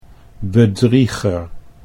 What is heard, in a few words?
"bedrieger", Dutch